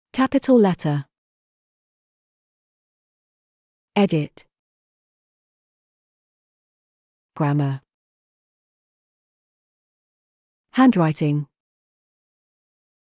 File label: uk writing